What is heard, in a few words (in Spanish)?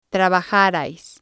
"trabajarais", spanish